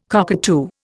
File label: "cockatoo", Indian